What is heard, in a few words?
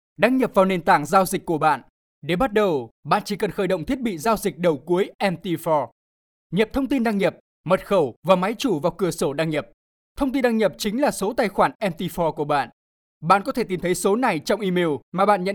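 Vietnamese North, male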